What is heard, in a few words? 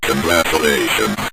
"congratulations", Synth Voice; Kenney's Sound Pack